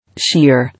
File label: "Shear", English